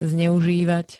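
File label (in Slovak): "zneuzivat", Slovak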